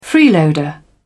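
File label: "freeloader", British English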